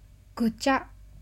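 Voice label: "gucha", Japanese; グチャ – gucha; manga onomatopoeia sound effects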